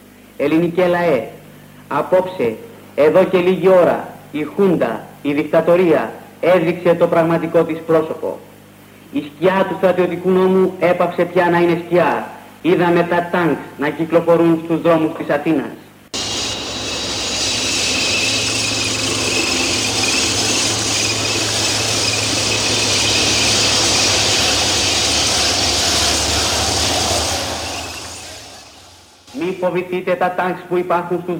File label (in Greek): ραδιοφωνικό μήνυμα; τα τανκς στους δρόμους